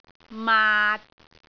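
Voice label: "maat6", Cantonese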